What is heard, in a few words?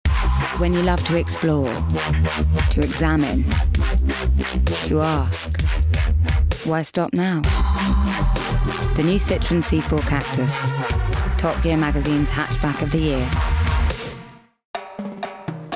Commercial